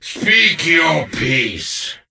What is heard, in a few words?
NPC Death Knight Male Med Greeting